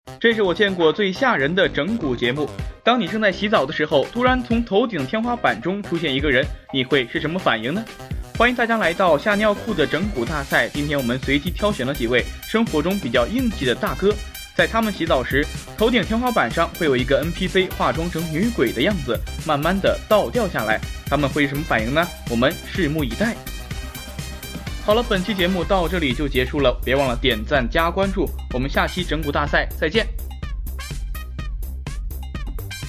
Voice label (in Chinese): 快节奏娱乐